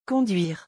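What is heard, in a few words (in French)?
"conduire", French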